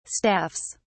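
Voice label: "staffs", British English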